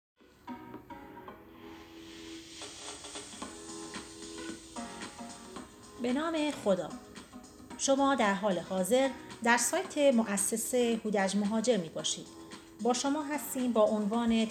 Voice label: english